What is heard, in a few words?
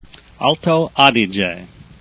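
"alto", English word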